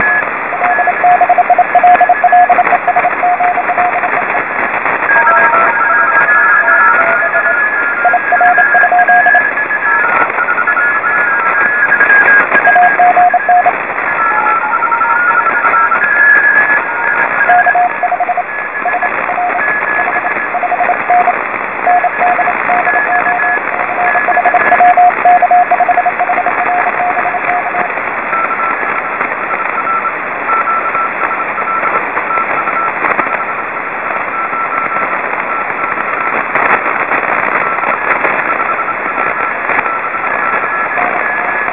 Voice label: chatter